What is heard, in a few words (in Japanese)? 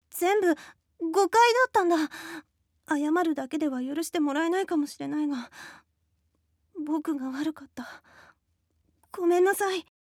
あやまりボイス; 疾瀬1